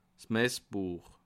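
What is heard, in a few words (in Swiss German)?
"messbuech", Bas Rhin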